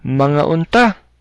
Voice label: bon appetit, cebuano